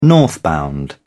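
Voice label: "northbound", British English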